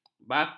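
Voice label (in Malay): "bakat", Malay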